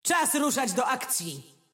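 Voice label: Polish; 索杰恩（守望先锋2）; 终极技能; 主动/敌方释放语音; 波兰语; Czas ruszać do akcji!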